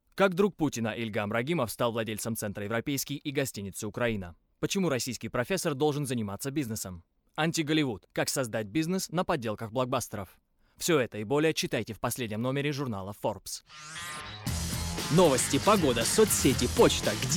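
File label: Russian, General; GoLocalise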